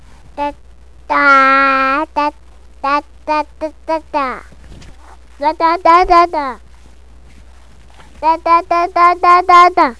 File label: reading